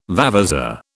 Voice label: "vavasour", British

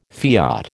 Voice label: "fiat", American

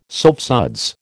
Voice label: "soapsuds", American

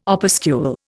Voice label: "opuscule", Indian

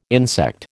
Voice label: "insect", American

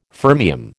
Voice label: "fermium", American

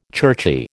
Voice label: "churchy", American

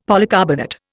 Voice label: "polycarbonate", Indian; Dictionary

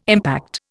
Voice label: "impact", Indian

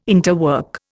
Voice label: "interwork", Indian

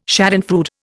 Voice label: "schadenfreude", Indian

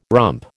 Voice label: "rump", American

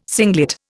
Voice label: "singlet", Indian

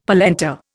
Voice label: "polenta", Indian; Dictionary

